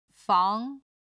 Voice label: "fang2 fang2", chinese

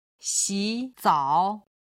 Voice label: "xi3zao3", chinese; HSK3級

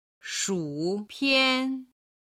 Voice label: "shupian", chinese

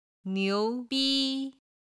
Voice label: "niu2bi1", chinese

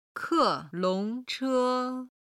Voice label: "ke4long2che1", Chinese

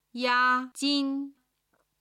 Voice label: "yajin", Chinese

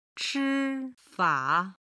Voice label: "chifa", Chinese

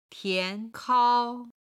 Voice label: "tian2 kao1", Chinese

